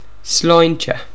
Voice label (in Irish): "slainte", Irish